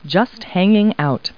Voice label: people, kid

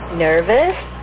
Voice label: nervous

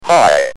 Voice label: "High", Speak & Spell UK

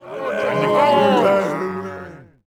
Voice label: men murm

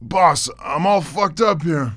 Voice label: fucked up, male, wounded; KINGPIN; hired guy

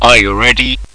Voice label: agent voice

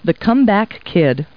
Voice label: people, kid

